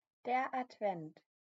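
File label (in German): "Advent", German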